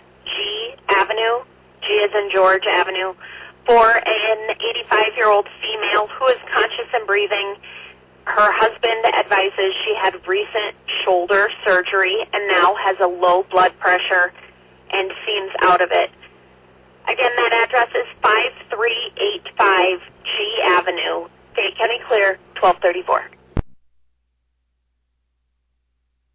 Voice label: Arlington Fire, dispatch